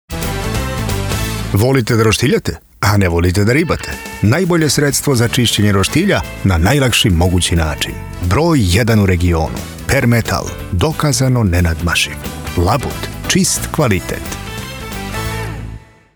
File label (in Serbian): usluga voiceover snimanje glas profesionalno iskustvo